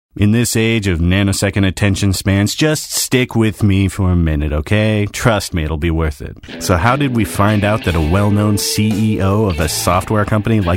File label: male voice